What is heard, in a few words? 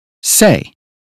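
"say", British phonetics; 英语音标发音（剑桥版）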